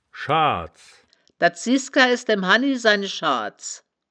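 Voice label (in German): "schaads", wittlicher woerterbuch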